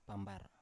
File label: "pambar", Biak